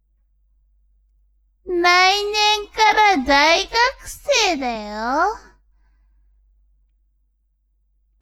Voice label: woman